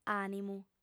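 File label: "ànimu", lingua sarda, female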